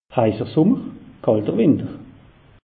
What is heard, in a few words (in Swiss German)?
"heisser summer", alsace; meteo